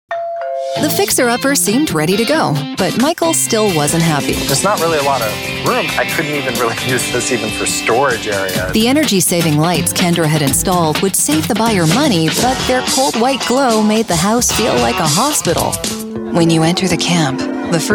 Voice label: TV Narration